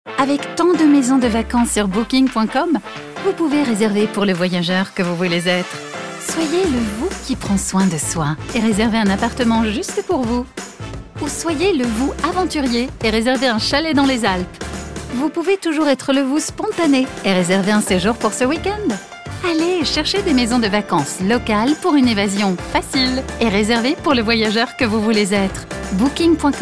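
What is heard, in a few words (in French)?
pub radio French, voix off femme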